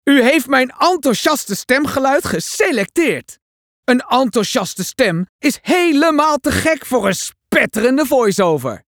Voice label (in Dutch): Enthousiast